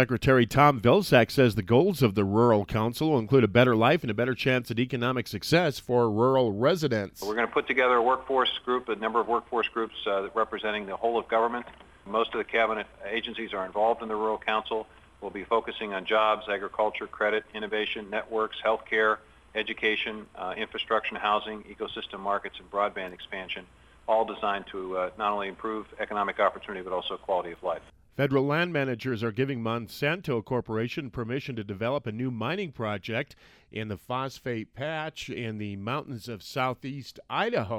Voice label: radio news; Wyoming